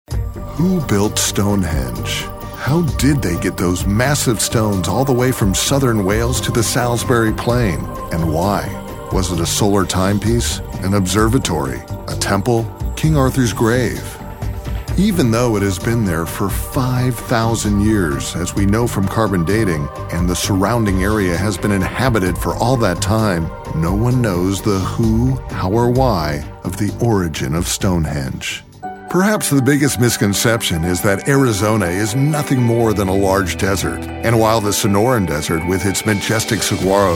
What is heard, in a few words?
english american documentaries